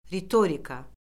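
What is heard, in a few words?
"ritorika", Azerbaijani; Orfoqrafiya Lüğəti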